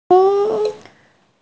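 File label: "men2", Mandarin Chinese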